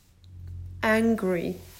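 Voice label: "Angry", English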